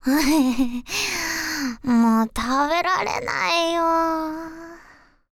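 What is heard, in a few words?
mou taberarenai yo, Japanese, girl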